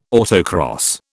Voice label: "autocross", British